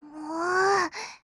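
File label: LOW MOOD, Japanese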